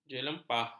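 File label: "jelempah", Malay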